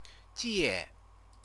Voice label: "jie", Chinese